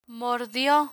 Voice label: "mordio" Spanish; medical medicine vocabulary; learn spanish

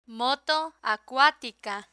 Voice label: moto acuatica, Spanish; learn spanish

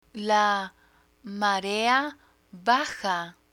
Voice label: "marea baja", Spanish; travel beach pool; learn spanish

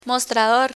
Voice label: "mostrador", Spanish

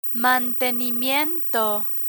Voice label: "mantenimiento", Spanish

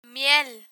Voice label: "miel", Spanish; learn spanish

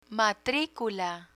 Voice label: "matricula", Spanish

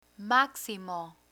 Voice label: "maximo", Spanish

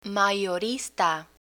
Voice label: "mayorista", Spanish; vocabulary; learn spanish

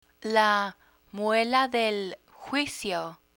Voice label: "muela del juicio" Spanish

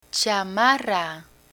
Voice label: "chamarra", Spanish; learn spanish

